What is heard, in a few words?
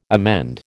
"emend", American